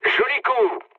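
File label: player killed enemy, mood low, French; War Thunder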